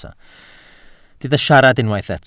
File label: "siarad", Welsh